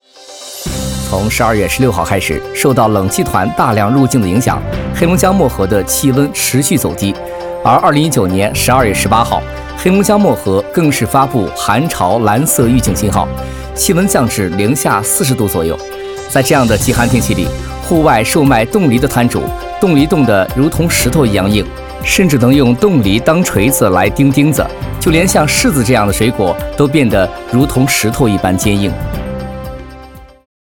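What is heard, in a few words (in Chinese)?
男专题新闻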